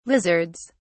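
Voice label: "lizards", British English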